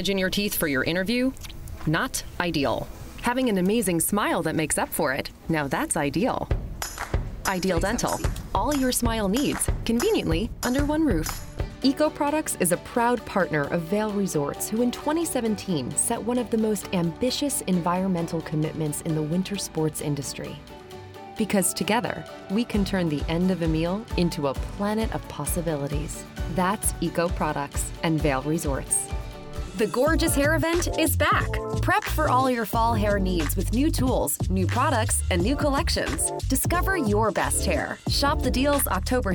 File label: Commercial, Female Voice Over